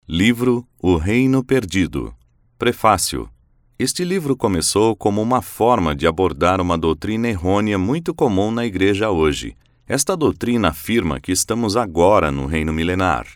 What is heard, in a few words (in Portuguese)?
voz audiobook; o reino perdido prefacio; Deus estabelecerá um reino físico na Terra?